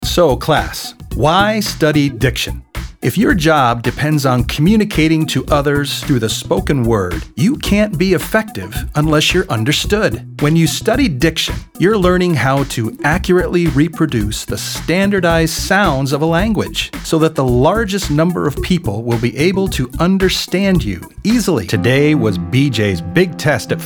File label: Narrative